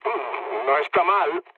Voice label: trick, mood low, speech; War Thunder